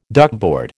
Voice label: "duckboard", American